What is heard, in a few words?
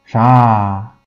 zha, 吴语; zh 跟dz一样，也并不是在所有的吴语中都能找到，经典的苏州话中也没有这个声母。; 它是sh对应的浊音